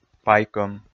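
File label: "пайкӧм", Komi, male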